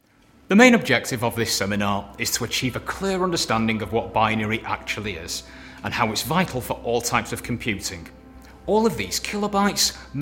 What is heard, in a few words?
Presenter